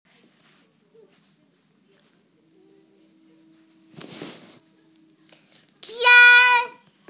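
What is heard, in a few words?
"shan1", Mandarin Chinese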